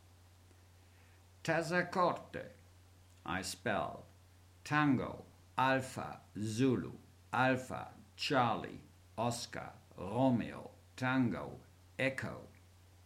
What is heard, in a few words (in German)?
Seefunktext